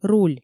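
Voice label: "руль", Komi, female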